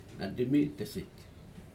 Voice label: three men, Aneityum